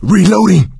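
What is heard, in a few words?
reloading quiet, male; Left 4 Dead 2; coach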